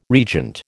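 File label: "regent", American English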